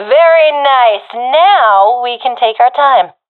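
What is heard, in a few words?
enemies left none, professional female; vo; Counter Strike Model Pack; CS:GO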